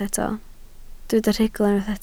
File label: "rhugl", Welsh